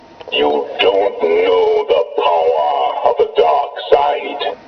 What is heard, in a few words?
voice changer dark side; ROTS; SW